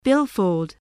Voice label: "billfold", English